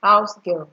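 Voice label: "housegirl", Nigerian Pidgin, female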